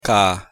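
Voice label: "k", Hungarian pronunciation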